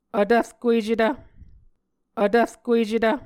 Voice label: "vtvrkuecetv", Muscogee

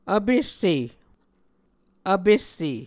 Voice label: "vpesse", Muscogee

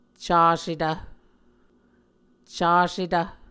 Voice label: "casetv", Muscogee

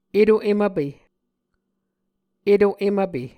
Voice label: "eto em vpe", Muscogee

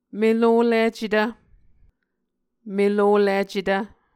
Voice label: "meloHlicetv", Muscogee

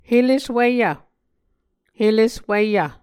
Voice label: "heleswiyv", Muscogee

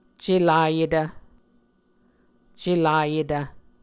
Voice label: "celayetv", Muscogee

